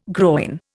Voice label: "groyne", Indian